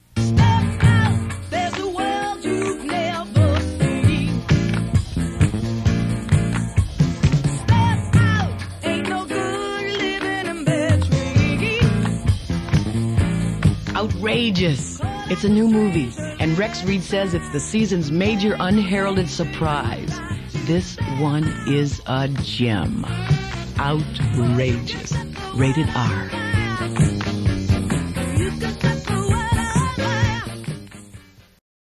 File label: Radio Spot